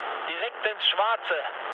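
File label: German bomb success mood low, speech; War Thunder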